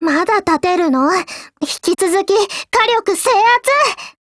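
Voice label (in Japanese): skill, Japanese; ARX160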